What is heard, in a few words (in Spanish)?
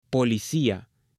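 "policia", Spanish, female; AppLenguaje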